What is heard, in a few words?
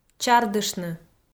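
"чардыштны", Komi, female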